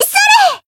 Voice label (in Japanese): Voice attack, jp; yukino